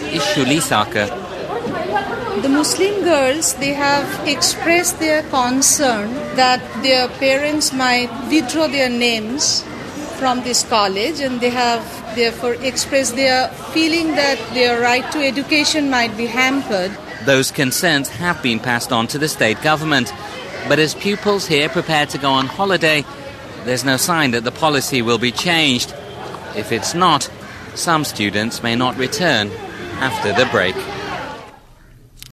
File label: indian female school male teachers